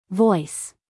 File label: "voice", us female; 1000 hours